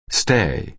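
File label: "stay", American English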